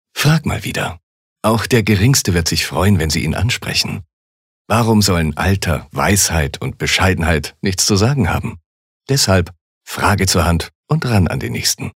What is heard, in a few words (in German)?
Werbung Freundlich